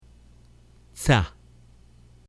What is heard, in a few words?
"tza", Hebrew